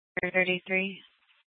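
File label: police dispatch radio, scanner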